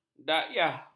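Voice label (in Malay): "dakyah", Malay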